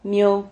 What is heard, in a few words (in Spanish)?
"mio", Silabas